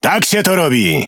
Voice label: Speech Quick Commands Good Game, PL; World Of Warships